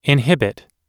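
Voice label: "inhibit", English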